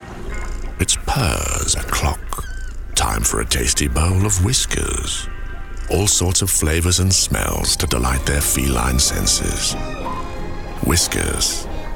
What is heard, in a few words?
PURR MORE Voice; WHISKAS